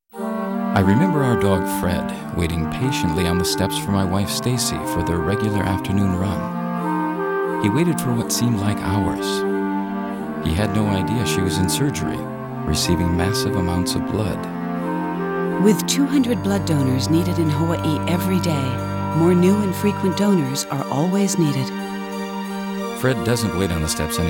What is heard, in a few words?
TV Spot Voiceover; Hawaii Bloodbank